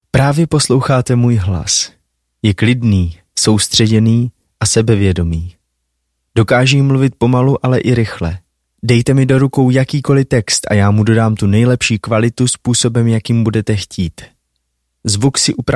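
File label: Czech, male, narration; voice over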